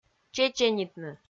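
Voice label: "чеченитны", Komi, female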